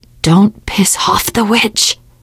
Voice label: witch getting angry, teengirl; survivor voice

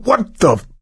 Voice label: reaction negative; voice; source engine; left 4 dead 2

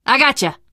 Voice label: revive friend, survivor voice teen girl

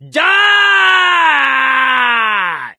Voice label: battle cry, survivor voice; source engine; left 4 dead 2

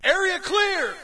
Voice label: us area clear; player; Source Engine; dod

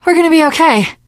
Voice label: hurrah, teen girl, survivor voice

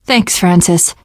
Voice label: thanks, teen girl; source engine; left 4 dead 2; survivor

